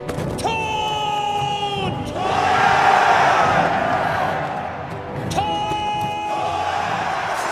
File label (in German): match ansage